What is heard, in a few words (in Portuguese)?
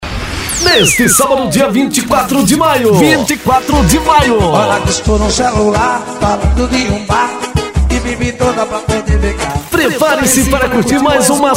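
locutor vinheta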